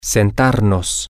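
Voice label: male, spanish; to Seat Ourselves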